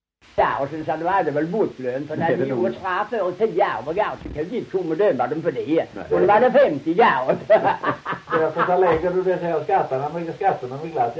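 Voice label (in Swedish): Ramdala Blekinge, dialekter svenska sagor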